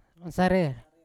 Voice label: "sarer" to dance, Yawa

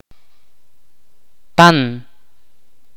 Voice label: "cenizas" in Ch'orti'

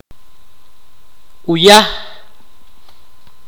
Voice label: "escuchar", mopan

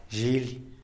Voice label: "zhily", tlacochahuaya; animals; chair

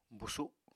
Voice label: "busu", Apatani

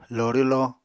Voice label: "loorwlo", Apatani

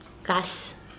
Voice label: hat, Mokilese

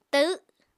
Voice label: "tahw", Apatani

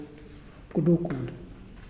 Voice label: "Tooth", Muyuw; Lae Unitech

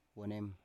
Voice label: "wonem", Biak

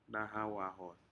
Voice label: "nahau ahod", Aneityum; turtles

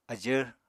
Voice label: "ajvr", Apatani

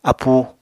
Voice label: "apúu", Apatani

